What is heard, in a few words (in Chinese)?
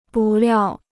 "不料", Chinese